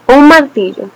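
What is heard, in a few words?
hammer desc, Spanish; tuxpaint-stamps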